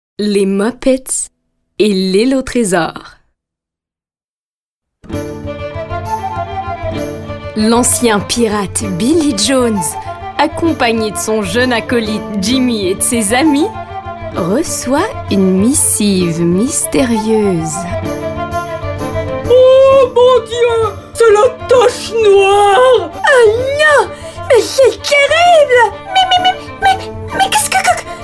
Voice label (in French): Voix de composition